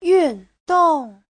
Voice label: "yun4dong4", Chinese; 运动